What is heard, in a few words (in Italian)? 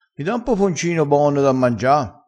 "poponcino", dialettale, crespinese